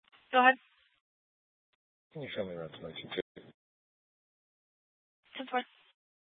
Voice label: Mesa PD Central Dispatch, scanner

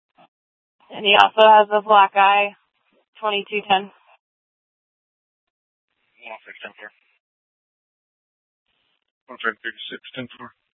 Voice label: Police South Dispatch

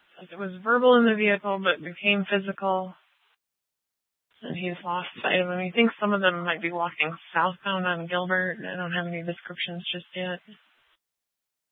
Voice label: Gilbert Police Dispatch

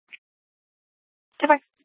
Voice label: Dispatch; Scottsdale

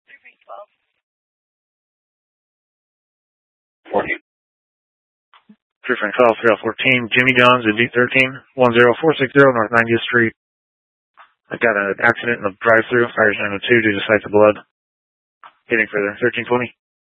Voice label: Scottsdale Police, Simulcast

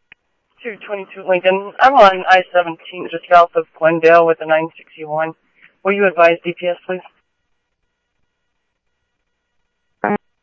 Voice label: Phoenix PD, scanner audio

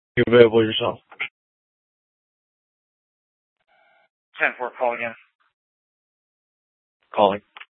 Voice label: PD Dispatch

